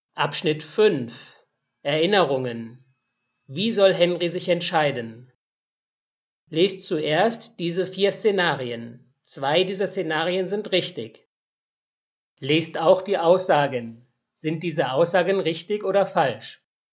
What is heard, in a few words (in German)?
Hörverstehen Deutsch B1; Leonhard Thoma Schlaflose Nacht